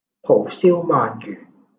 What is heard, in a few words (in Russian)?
Голоса, Гонконгский